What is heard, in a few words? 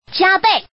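Woman, "double"; jiabei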